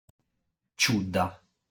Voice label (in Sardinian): "Ciudda", sardu